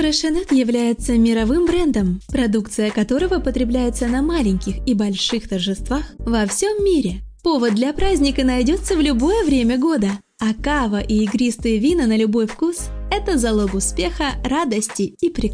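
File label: Russian Female Voice Over Energetic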